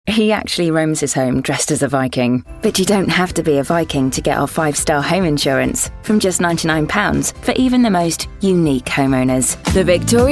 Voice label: english british commercial